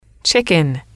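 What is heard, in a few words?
"chicken", English, female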